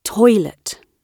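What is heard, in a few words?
"toilet" British English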